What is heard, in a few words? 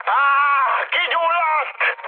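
Hungarian on fire mood high, speech; War Thunder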